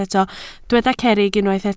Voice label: "cerrig", Welsh

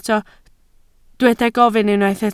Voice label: "gofyn", Welsh

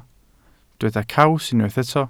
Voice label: "caws", welsh

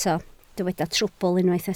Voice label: "trwbl", Welsh

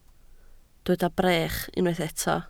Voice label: "brech", Welsh

